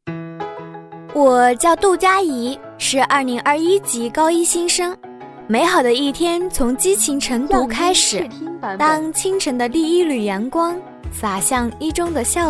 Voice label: 女 人物高中生; 女007-年轻甜美; 素人自然

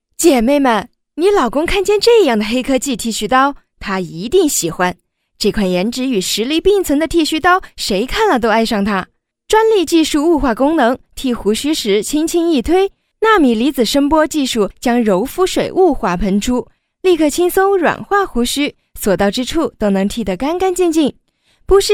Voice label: 女 剃须刀素人口语化; 甜美轻柔; 甜美亲切

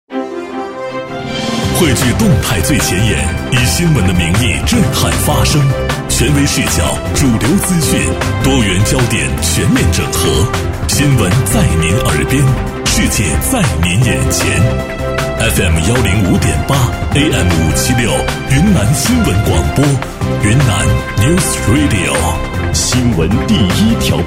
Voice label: 男 电台包装; 电视台声; 大气激昂; 云南新闻广播频道音

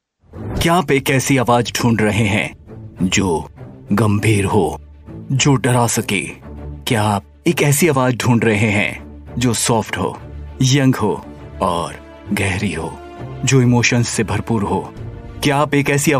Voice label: 印地语男声

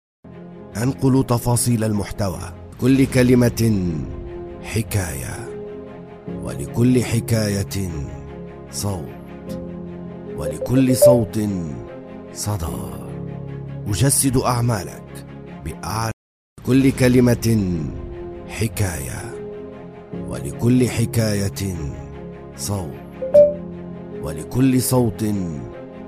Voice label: 阿拉伯男声

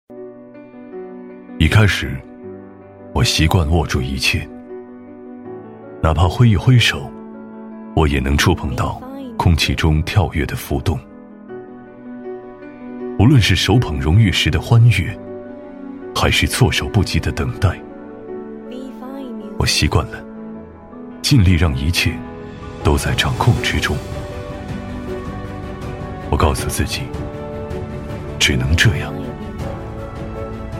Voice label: 男 品质广告 走心温暖; 未来之旅

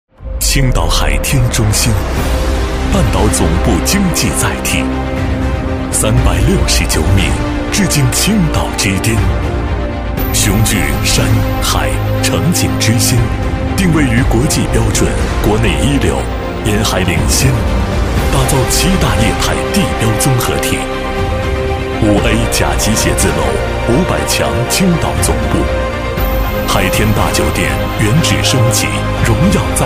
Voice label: 男 地产广告 大气高端; 青岛海天中心; 电视台声; 大气激昂

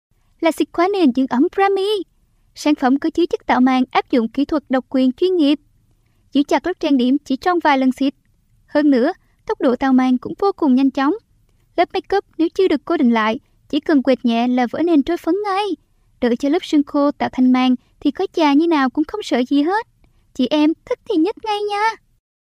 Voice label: 越南语女声; 女越南语

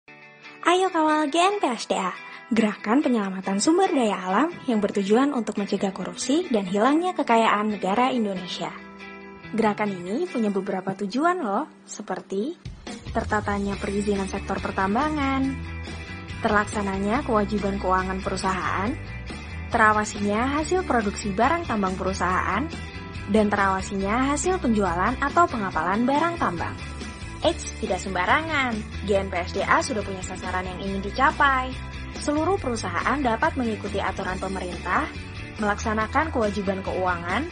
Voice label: 印尼语女声

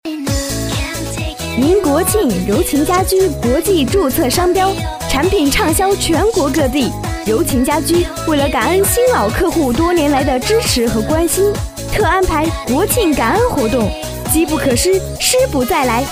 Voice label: 女 促销广告 柔情家居 年轻有力; 女007-年轻甜美; 甜美亲切

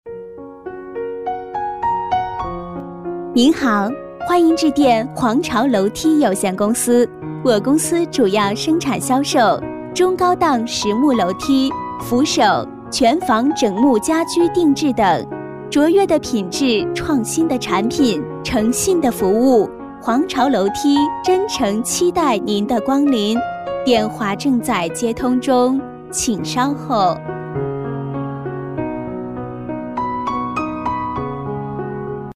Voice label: 女 电话彩铃 楼梯; 皇朝楼梯

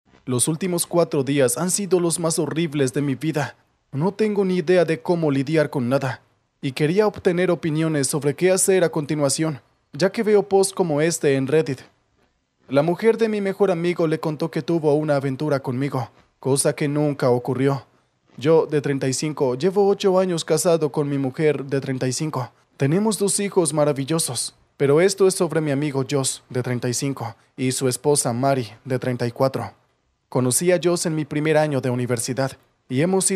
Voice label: 西班牙男声

